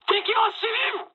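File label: Japanese enemy detected mood med; War Thunder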